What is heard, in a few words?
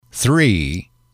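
"three", English, male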